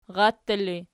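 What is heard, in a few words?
rattera ergative, Archi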